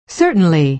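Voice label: "certainly", American English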